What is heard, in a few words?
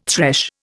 "thresh", Indian